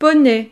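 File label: "poney", French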